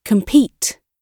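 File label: "compete", British English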